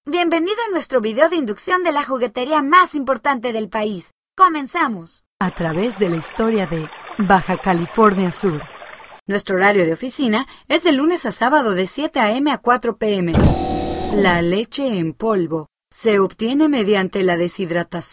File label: spanish narration; Mexican/Castilian Spanish Speaker. Very expressive and svelte Spanish language voice with wide range.